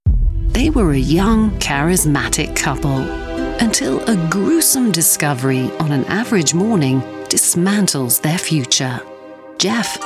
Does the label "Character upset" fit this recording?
No